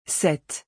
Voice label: "cette", French